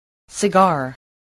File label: "cigar", English, voice